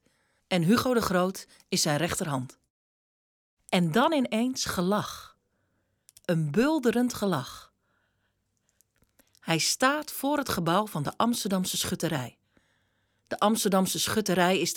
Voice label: Voice over